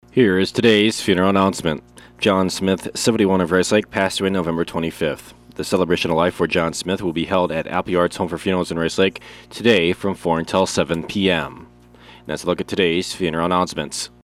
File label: Funeral Announcements